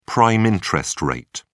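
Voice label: "prime interest rate", British English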